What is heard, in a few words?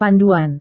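"panduan", Indonesian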